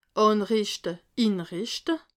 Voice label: "anrischte", alsace

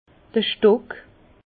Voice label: "stock", Bas Rhin

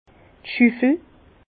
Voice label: "schuffel", Bas Rhin; vin; pelle

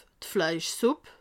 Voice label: "fleischsupp", alsace

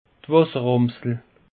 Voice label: "wasseramsel", alsace; peche

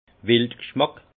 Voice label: "wild gschmack", alsace; venaison

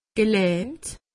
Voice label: "gelahmt", alsace; medecine

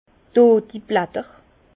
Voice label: "dodi blatter", Bas Rhin; vin; feuilles mortes

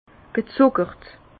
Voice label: "gezuckert", Bas Rhin; vin; sucré

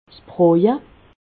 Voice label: "sbtoje", alsace

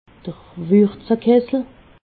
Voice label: "wurzekessel", alsace

